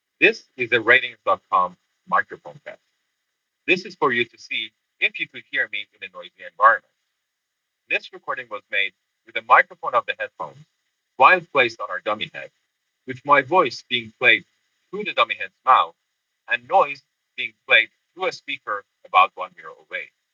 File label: usb-c noise